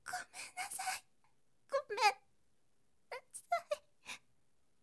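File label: "gomennasai", Japanese, woman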